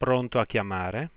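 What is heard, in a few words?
ready to dial, Italian, Voice Sounds; Samsung SGH-i310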